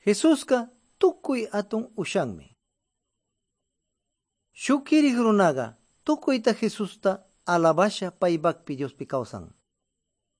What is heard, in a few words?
good news quichua de pastaza